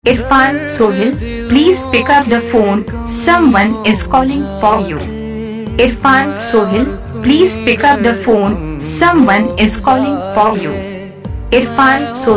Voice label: plese pick up the phone someone calling saying, Ringtones; Your Name Ringtones A To Z Names